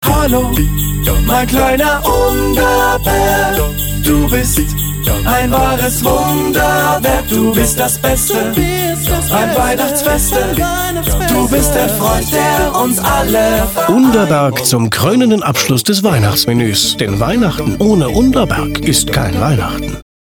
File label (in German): Spot Weihnacht